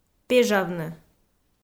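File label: "пежавны", Komi, female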